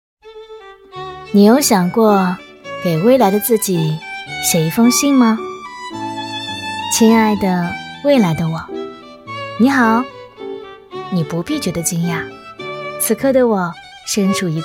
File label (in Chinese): 女-旁白-【素人】; 大气质感; 素人自然